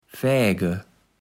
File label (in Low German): "fäge", kaevels platt